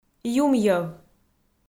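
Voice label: "юмйӧв", Komi, female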